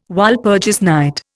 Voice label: "Walpurgis night", Indian